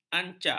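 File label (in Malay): "ancak", Malay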